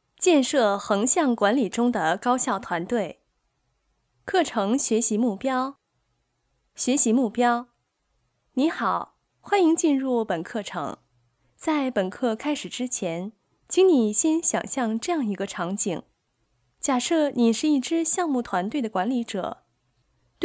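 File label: chinese mandarin female narration; voice demos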